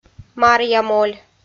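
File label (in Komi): "маръямоль", Komi-Zyrian, female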